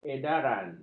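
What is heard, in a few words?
"edaran", Malay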